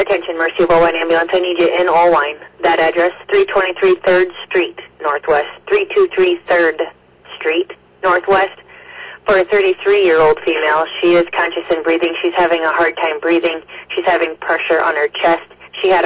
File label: Oelwein Ambulance, dispatch